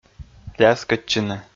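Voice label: "ляскӧдчыны", Komi, male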